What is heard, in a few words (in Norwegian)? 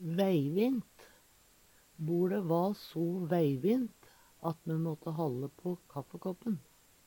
"veivint", Numedalsmål; BoLe va so veivint at me måtte halle på kaffekåppan.; Adverb